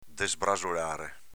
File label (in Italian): "desbrasolare", Veneto